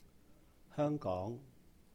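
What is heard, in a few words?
"香港", Cantonese; Hong Kong; Hēung-Góng; hoeng1 gong2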